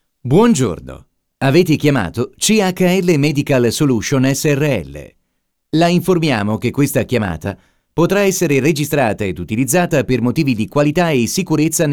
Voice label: male it

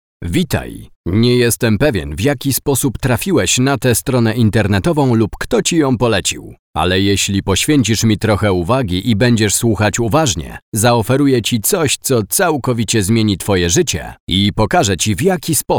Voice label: male polish

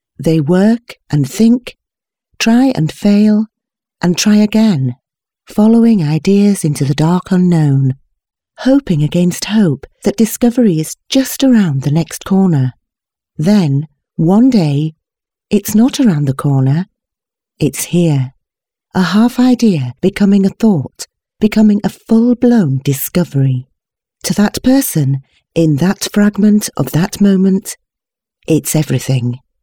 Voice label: female English British

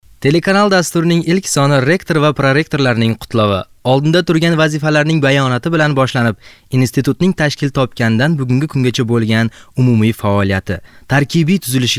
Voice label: male uzbek

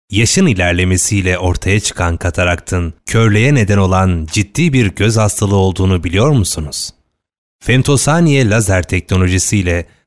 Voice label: male Turk

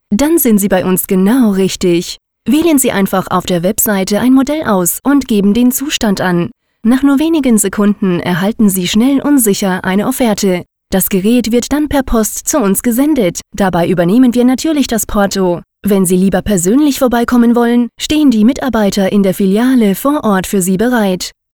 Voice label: female German

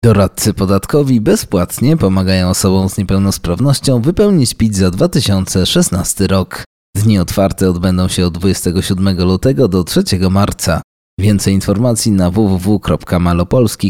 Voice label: male polish

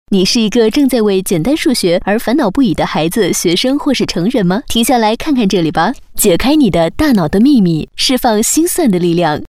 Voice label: female china

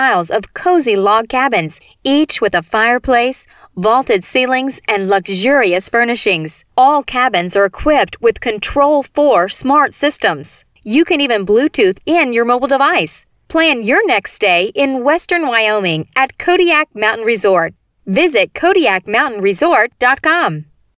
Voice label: female, English south american

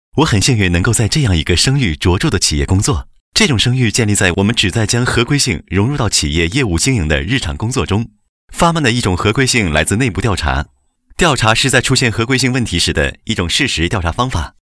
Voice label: male mandarin china

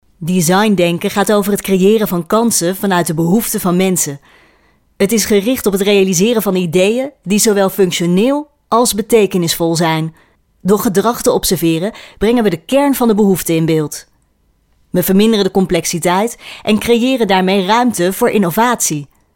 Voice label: female Dutch

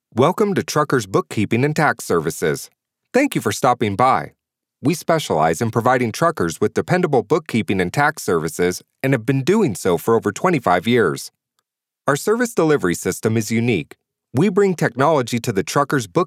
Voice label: male English American

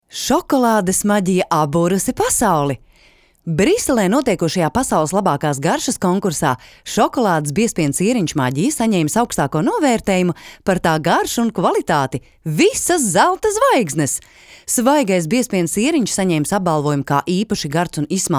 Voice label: female Latvia

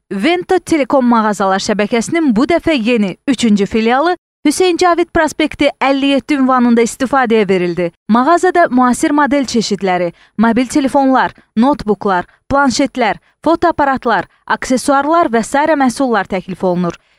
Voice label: female azerbaijan

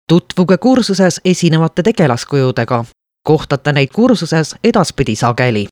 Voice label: female estonian

